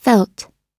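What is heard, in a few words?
"felt", American English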